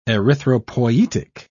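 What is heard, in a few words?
"erythropoietic", British English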